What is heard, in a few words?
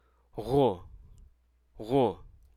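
Hruso Aka; chasm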